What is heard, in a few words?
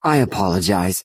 male sorry; Imperial